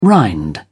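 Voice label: "rind", British English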